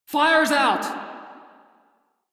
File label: crew fix fire, female; Dialog; en; Warframe; Railjack Crew; Steel Meridian